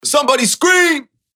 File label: SOMEBODY SCREAM